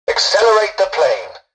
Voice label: tutorial dialogue, english; War Thunder

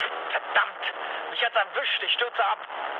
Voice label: aircraft destroyed, mood low, speech ge; War Thunder

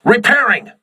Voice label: voice message repairing, crew ships english

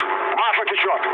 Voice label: damaged oil, mood low, speech ru; War Thunder

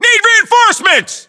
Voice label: US reinforcements, voiceovers, localized english

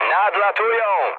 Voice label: enemy detected mood med, speech pol; War Thunder

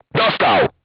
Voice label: voice message target destroyed, polish

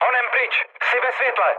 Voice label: searchlite, speech cze, mood med; War Thunder

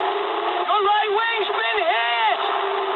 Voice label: eng right wing mood high, speech eng; War Thunder

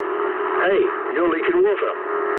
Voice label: eng damaged water mood low, speech eng

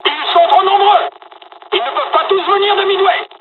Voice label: dialog, dialogs french; midway; War Thunder

